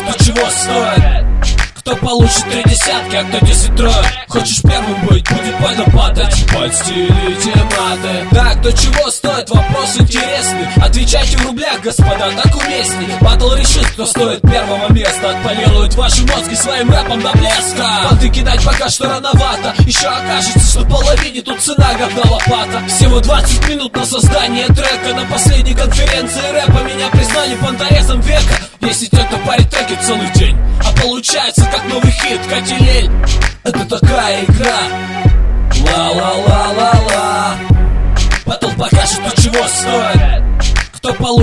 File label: rap session